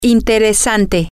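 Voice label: interesting, Spanish, female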